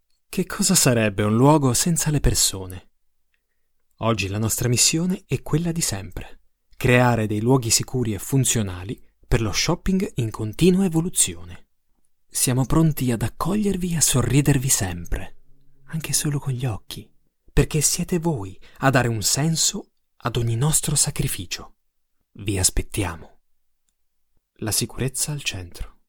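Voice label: Italian, Commercial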